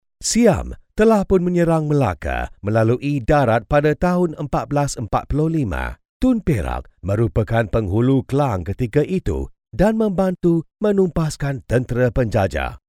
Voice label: Malay Male

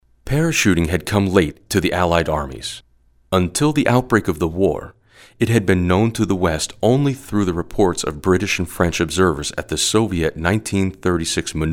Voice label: ENGLISH (USA) Male

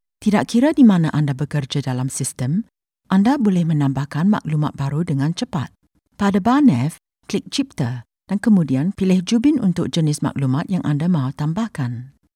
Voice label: Malay Female

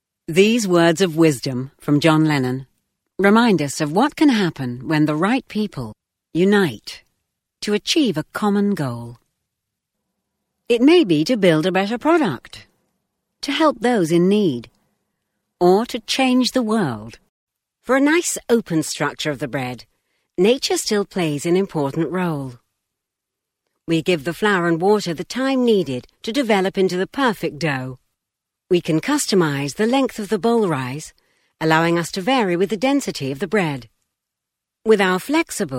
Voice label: ENGLISH (UK) Female

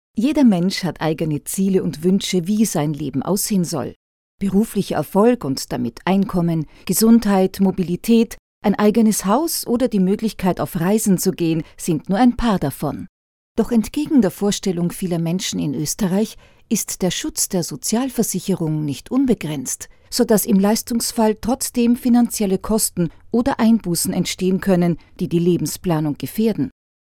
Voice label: GERMAN Female

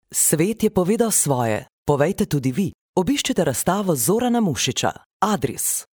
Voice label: Slovenian Female TV Commercial; TV Commercial for ART show

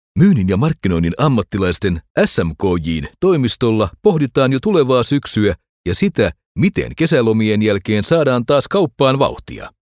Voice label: Finnish Male

